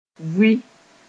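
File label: "vʋɩ", Mossi; live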